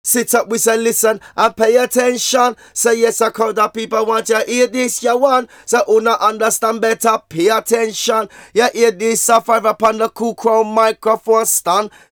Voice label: Vocals; Jungle Frenzy 1